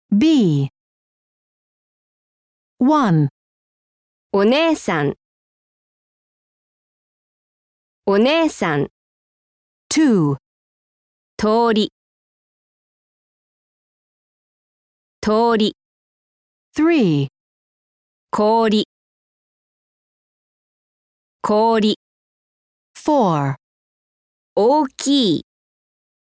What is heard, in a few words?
Hiragana Long Vowels, japanese